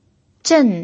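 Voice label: "zhen" Mandarin, fourth tone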